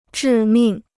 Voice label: "致命", Chinese